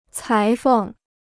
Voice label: "裁缝", Chinese

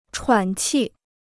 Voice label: "喘气", Chinese

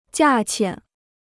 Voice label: "价钱", Chinese

